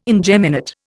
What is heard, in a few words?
"ingeminate", Indian